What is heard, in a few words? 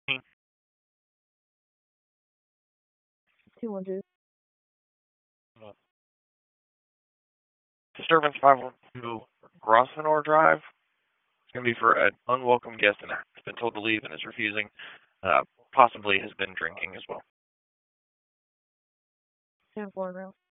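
Raleigh Police Northeast District Dispatch, p25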